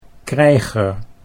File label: "krijger", Dutch